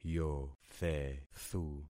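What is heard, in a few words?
"yothethu", concatenated syllables; Affixing experiment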